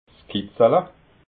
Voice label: "kitzala", alsace

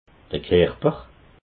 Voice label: "kerper", alsace

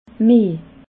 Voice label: "meh", alsace

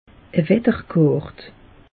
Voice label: "wetterkart", alsace; meteo

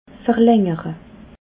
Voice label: "verlangere", alsace

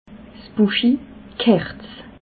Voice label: "buschi", alsace

